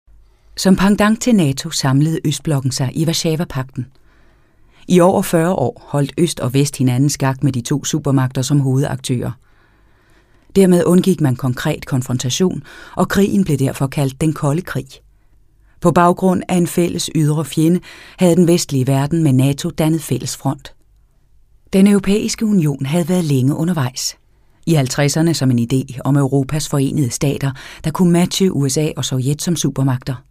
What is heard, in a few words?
Danish, Danish voice overs, Female